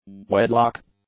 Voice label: "wedlock", English; nouns